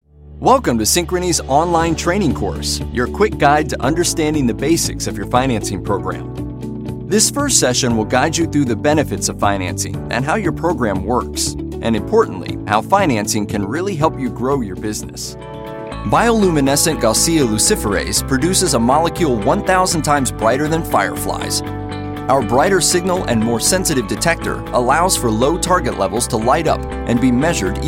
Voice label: ELEARNING